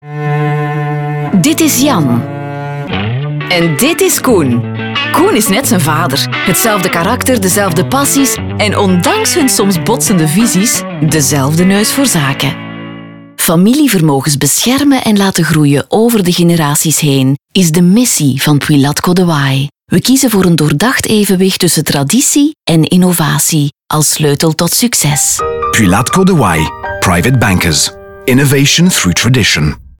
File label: radio; puilaetcodewaay